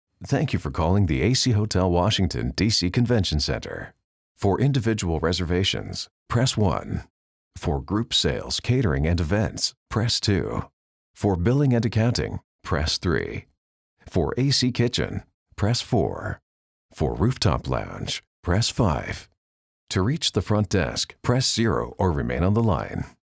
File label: On Hold IVR